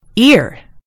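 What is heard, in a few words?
"ear", American English; gcompris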